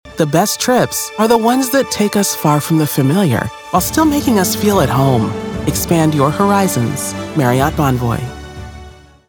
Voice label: hotel brand, Television Spots, African-American, Female Voice Over; Marriott-Hotel Tv Ad